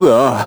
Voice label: vo confused; Crypt of the NecroDancer